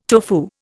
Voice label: "tofu", Indian

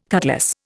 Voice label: "cutlass", Indian

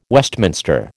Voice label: "Westminster", American

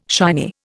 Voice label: "shiny", Indian

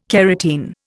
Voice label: "carotene", Indian

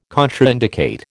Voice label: "contraindicate", American

